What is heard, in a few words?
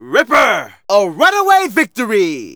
Narration